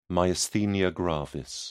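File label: "myasthenia gravis", British English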